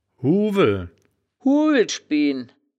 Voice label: "huuweln", wittlich

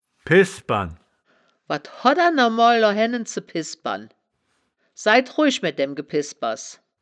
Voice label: "pissbern", wittlich

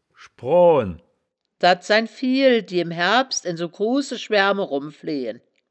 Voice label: "schbroo hen", wittlicher woerterbuch; das wittlicher woerterbuch; woerterbuch vertonung

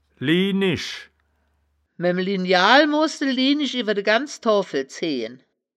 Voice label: "lienisch", wittlich

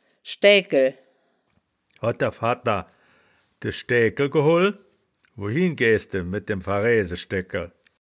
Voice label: "schdaegkel", wittlich

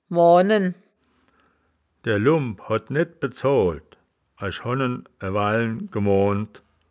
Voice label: "moanen", wittlich

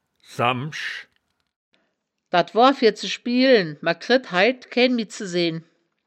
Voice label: "sammsch", wittlicher woerterbuch; das wittlicher woerterbuch; woerterbuch vertonung

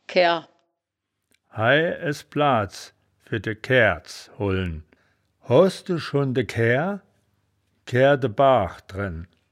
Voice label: "kaehr", wittlich

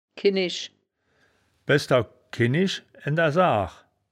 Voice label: "kinnisch", wittlich; das wittlicher woerterbuch; woerterbuch vertonung

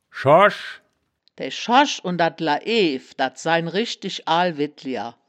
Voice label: "schorsch", wittlich

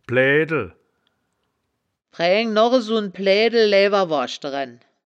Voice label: "plaedtel", wittlicher; das wittlicher woerterbuch; woerterbuch vertonung

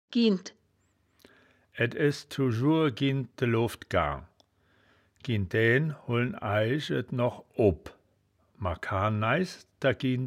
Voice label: "giend", wittlich

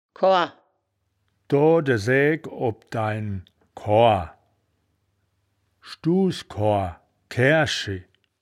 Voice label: "koahr", wittlicher woerterbuch; das wittlicher woerterbuch; woerterbuch vertonung

